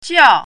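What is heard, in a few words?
"jiao4", Chinese pinyin, fourth tone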